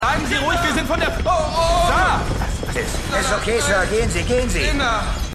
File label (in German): Gehoerloser; Rescue Me